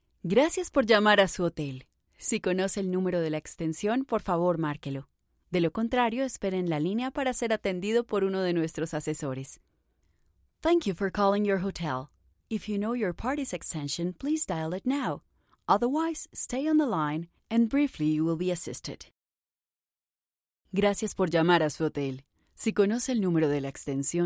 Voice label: Telephony IVR Spanish